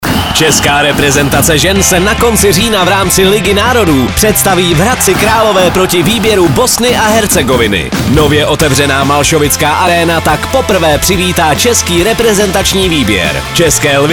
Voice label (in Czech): spot radio; Bosna